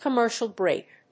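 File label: "Commercial break", English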